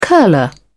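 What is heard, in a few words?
"curler", British English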